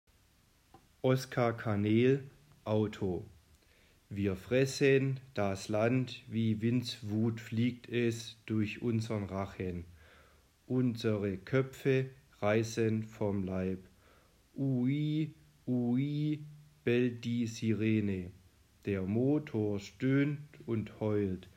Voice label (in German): gedicht ueberbetonung; reiselyrik